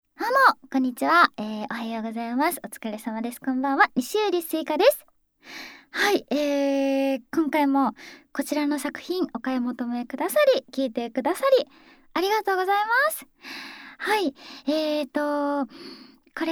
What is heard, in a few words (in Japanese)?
フリートーク; フォーリーサウンド; 他人に興味ゼロな美少女クラスメイトが『事務的妊活パートナー』に選ばれた話